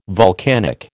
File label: "volcanic", American